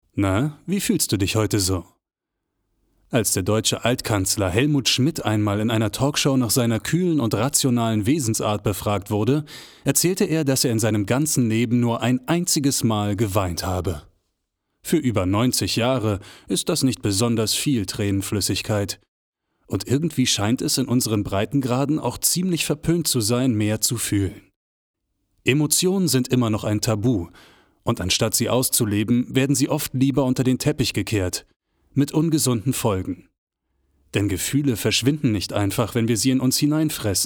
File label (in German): Lesung